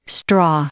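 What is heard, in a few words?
"straw", English, female; noun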